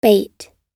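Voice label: "bait", American English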